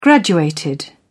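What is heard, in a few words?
"graduated", English, British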